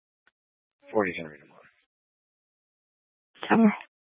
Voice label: Police Dispatch